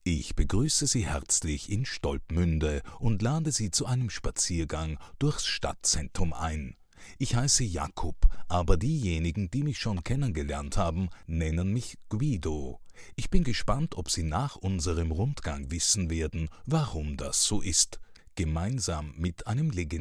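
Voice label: Austrian German, Corporate; voice demos; GoLocalise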